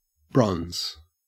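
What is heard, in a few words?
"bronze", Australian English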